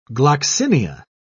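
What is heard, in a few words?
"gloxinia", English, British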